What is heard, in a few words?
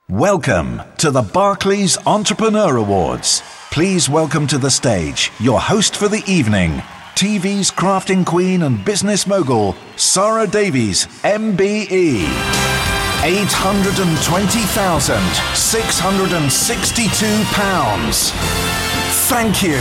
voice of god showreel